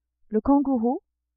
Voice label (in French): "kangourou", French